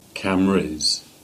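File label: "Cambrose" Cornish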